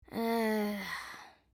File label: Ai.Mi Emote Negative